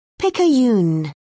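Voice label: "picayune", British English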